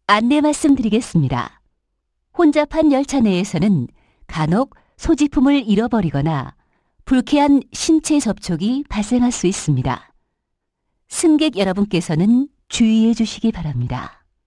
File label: Line 2 crowded, Korean